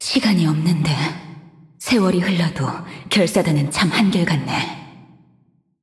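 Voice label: voice over, Korean; Yunara Intro; bloom minigame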